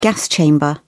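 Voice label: "gas chamber", British English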